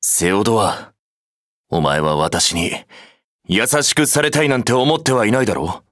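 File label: start, Japanese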